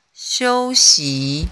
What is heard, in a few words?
chinese pronunciation ui iu